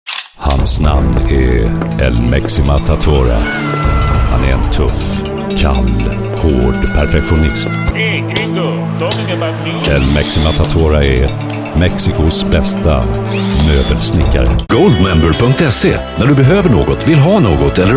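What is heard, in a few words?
Swedish, General; GoLocalise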